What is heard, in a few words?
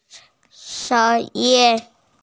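"sheng1yin1" Mandarin Chinese, female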